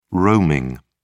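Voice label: "roaming", British English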